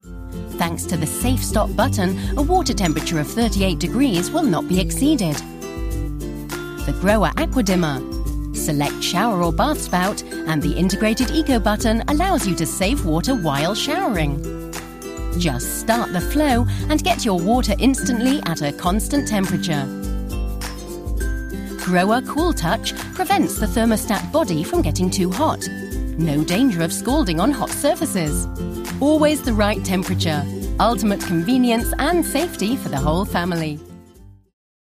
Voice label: voice artist image film